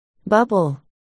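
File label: "bubble", spoken word, articulation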